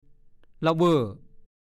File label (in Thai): "ล่ะเว่อ", ภาษาโคราช; ใจลอย